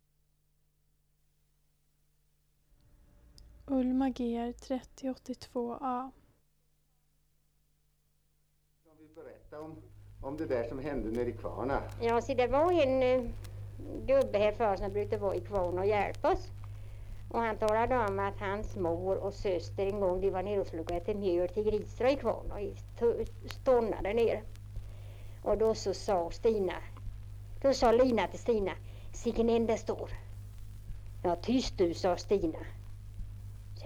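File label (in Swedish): Svinhult Östergötland, dialekter, Svenska sagor; Folkminnen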